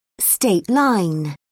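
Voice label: "state line", British English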